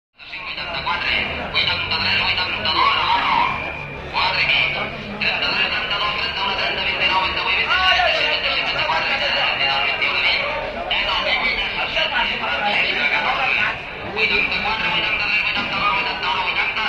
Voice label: Spanish Fish Auction